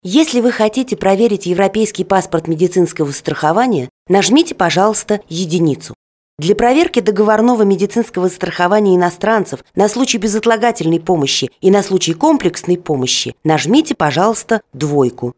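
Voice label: Female Russian ivr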